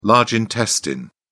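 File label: "large intestine", British English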